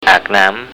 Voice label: "ak naam", Thai

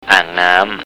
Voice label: "aang naam", Thai

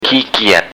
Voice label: "ki kiat", Thai

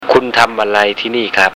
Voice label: "khun tam array tini khap", Thai

